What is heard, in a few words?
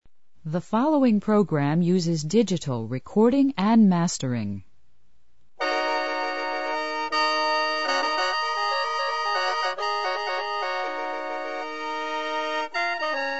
Korean mono